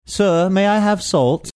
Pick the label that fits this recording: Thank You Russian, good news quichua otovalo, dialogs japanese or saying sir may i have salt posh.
saying sir may i have salt posh